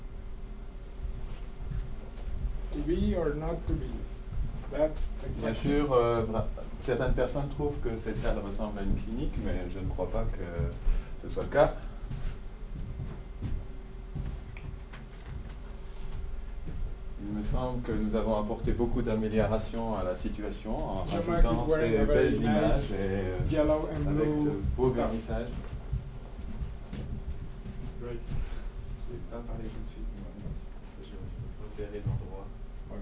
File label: array2 mic6; av16.3